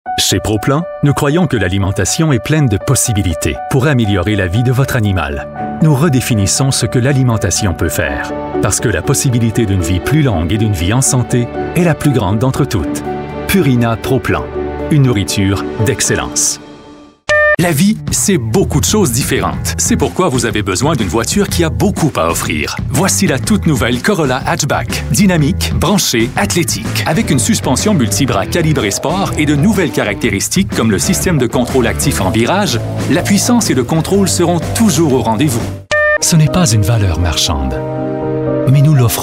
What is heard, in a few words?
french voice over male commercial